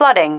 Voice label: "flooding", female